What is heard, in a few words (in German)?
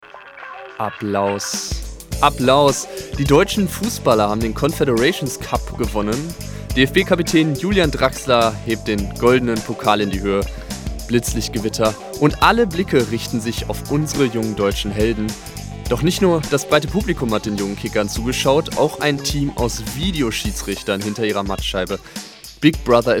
Kommentar Videoüberwachung